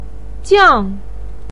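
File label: "jiang" fourth tone, chinese, voice pinyin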